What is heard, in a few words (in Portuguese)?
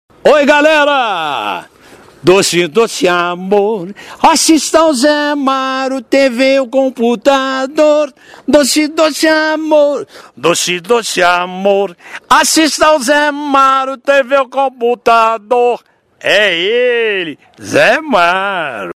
vinheta; TRANSFORM